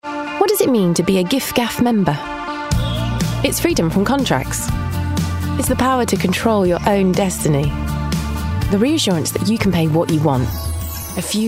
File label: Commercial